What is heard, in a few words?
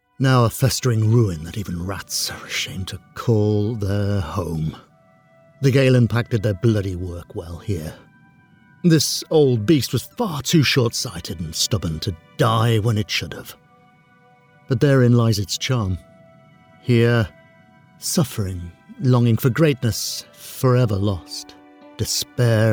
Melancholy Game Character, character voices